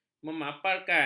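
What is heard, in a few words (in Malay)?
"memaparkan", Malay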